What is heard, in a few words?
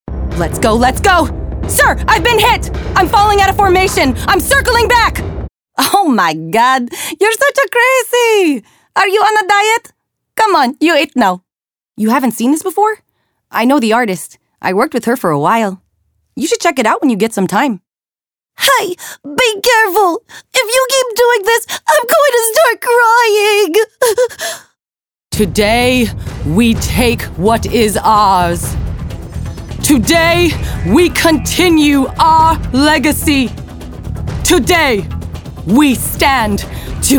VO animation